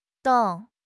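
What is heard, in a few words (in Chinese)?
"栋", Chinese; HSK6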